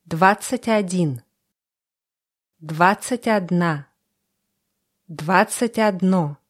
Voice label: russische zahl 20; Zahlen auf Russisch

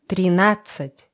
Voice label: russische zahl 20; Zahlen auf Russisch

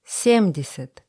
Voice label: russische zahl 20; russische zahl 70; Zahlen auf Russisch

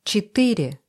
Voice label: russische zahl 20; Zahlen auf Russisch

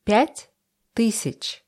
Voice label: russische zahl 20; russische zahl 5000; Zahlen auf Russisch

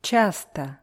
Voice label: "tschasto", russische

